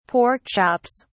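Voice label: "pork chops", British English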